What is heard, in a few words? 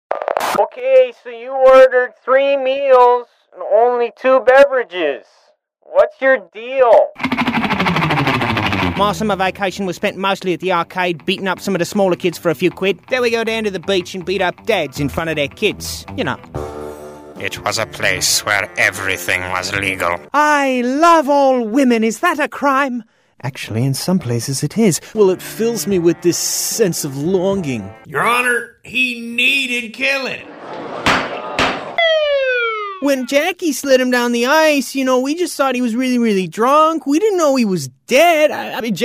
Animation